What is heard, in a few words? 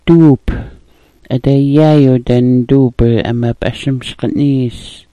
"duup", smalgyax